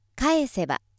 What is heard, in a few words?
female